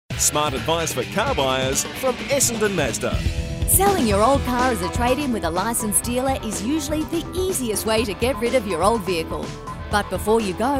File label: english australian automotive